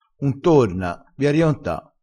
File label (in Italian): "rionta", crespinese